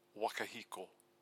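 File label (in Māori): "waka hiko", Māori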